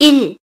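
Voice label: voice Korean, keytone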